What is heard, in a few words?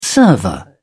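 "server", British English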